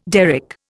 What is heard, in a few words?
"derrick", Indian